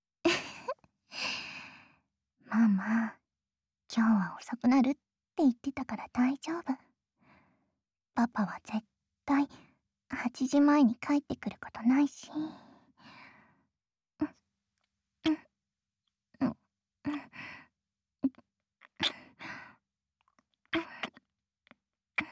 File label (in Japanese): ヒロインボイス; 低身長だけど爆乳で誘い受け上手なカノジョありさ